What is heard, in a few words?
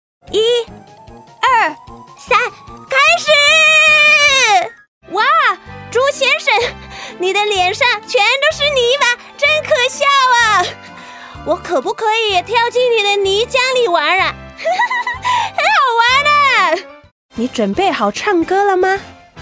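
Mandarin, toys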